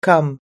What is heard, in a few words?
"come", us english